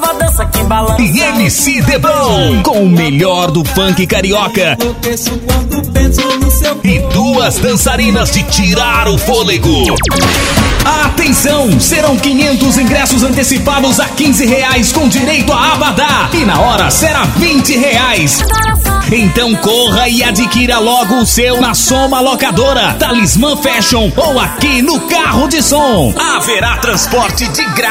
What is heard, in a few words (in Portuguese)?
chamada copa folia com banda